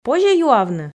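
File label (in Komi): позьӧ юавны, Komi, female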